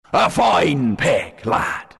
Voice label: announcer pick fine pick, pirate; dlc workshop; capn

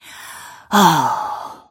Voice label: happy; snapfire

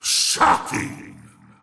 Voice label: lasthit pain; razor

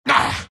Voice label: troll pain; dota; troll warlord

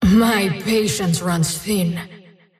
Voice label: attack pain; dota; dawnbreaker; valora

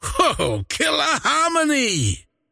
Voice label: croak big; dota; largo

